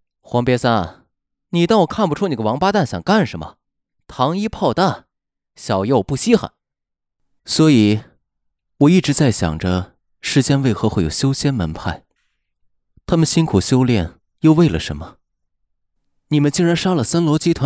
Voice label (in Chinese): 青年样音